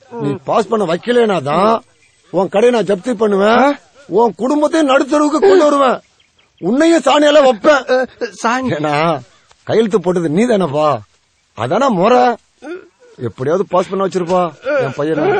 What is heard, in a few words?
tamil comedy; Indian